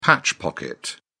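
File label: "patch pocket", British English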